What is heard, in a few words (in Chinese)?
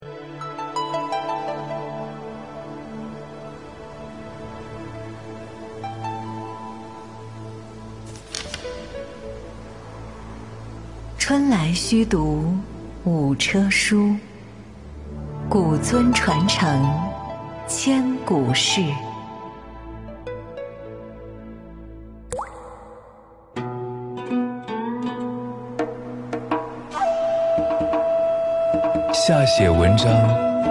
女 空调; 尊从四季——美的空调（中国尊）